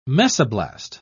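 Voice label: "mesoblast", British English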